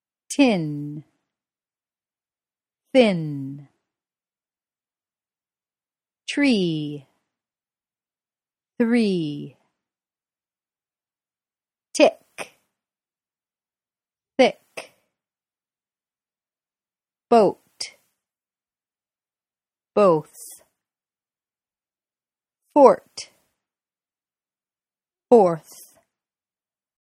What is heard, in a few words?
Pronunciation Practice